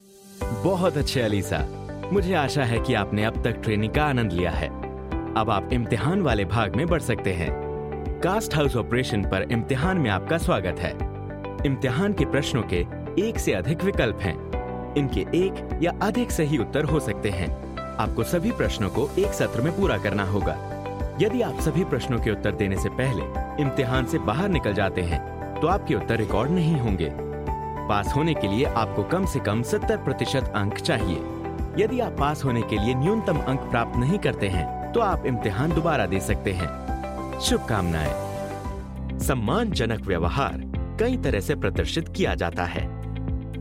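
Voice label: Hindi, E-learning, voice demos; GoLocalise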